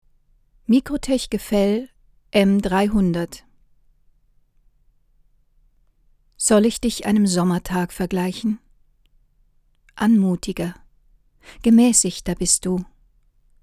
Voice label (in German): microtech gefell m 300 ohne kompressor sprache